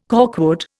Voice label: "corkwood", Indian